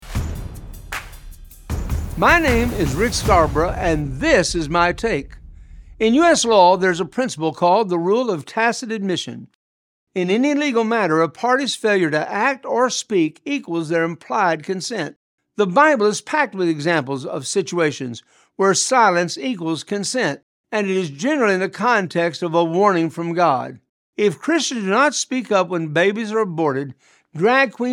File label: Radio Spot; Rick Scarborough; Silence Equals Consent